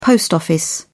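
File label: "post office", British English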